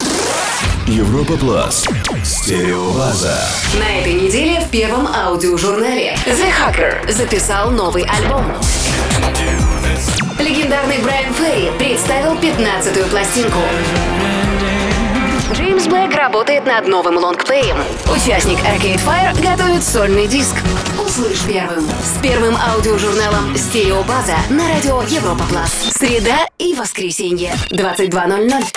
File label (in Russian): Анонс Trailer; STEREOBAZA #144